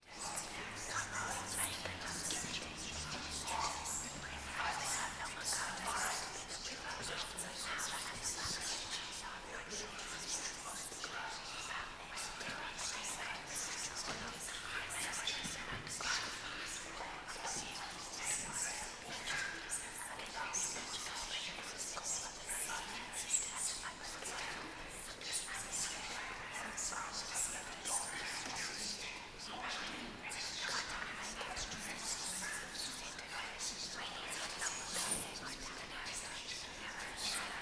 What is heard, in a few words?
Whisper; Dallas Rd